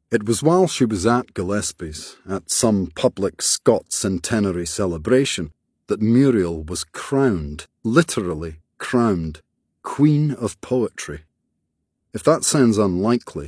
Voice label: AUDIOBOOK; Appointment in Arezzo